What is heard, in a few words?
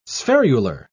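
"spherular", British English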